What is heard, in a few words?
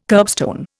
"kerbstone", Indian